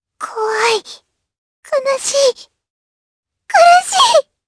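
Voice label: Vox Skill, Japanese; Lavril; kingsraid; jp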